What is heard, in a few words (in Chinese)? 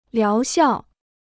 "疗效", Chinese